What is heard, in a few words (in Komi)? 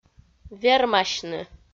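"вермасьны", Komi, female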